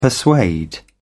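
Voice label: "persuade", British English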